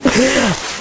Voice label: prey, fox male

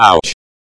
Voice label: pain, espeak

